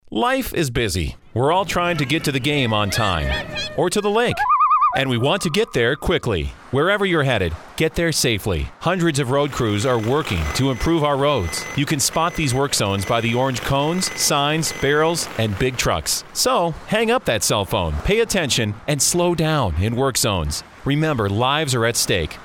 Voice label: psa male